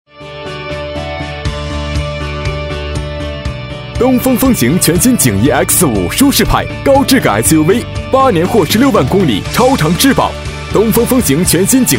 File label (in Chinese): 广告; 东风风行